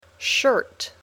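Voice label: "shirt", English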